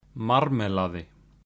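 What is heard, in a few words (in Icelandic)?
"marmeladi", Icelandic; matarhefti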